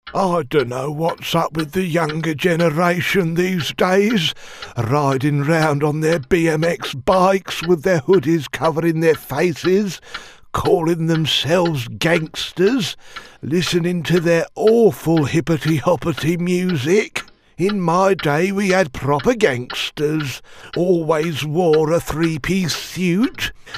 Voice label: moany old man